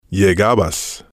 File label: "llegabas", spanish